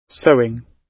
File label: "sewing", gb